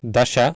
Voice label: "daSa", Sanskrit